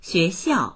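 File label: "xue2xiao4", Mandarin Chinese; 学校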